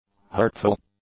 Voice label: "hurtful", English; adjectives

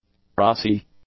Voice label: "prosy", english; adjectives

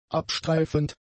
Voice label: "abstreifend", german; adjectives

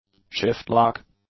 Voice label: "shift lock", English; nouns

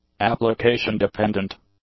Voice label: "application-dependent", English; adjectives

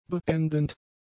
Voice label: "beendend", German; adjectives

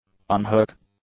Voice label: "on-hook", English; adjectives

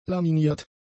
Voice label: "laminiert", German; adjectives

